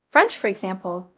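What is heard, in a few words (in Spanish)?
Voice Acting, es, female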